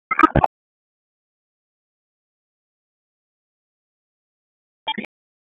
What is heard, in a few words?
Police North District Tac 1, p25; Raleigh; wake